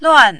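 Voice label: "luan", Mandarin, fourth tone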